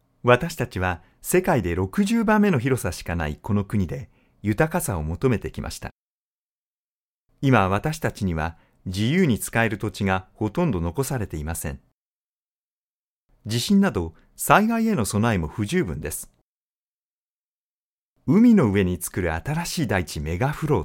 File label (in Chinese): 日语男 公司宣传片